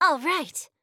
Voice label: Emote Positive; Octavia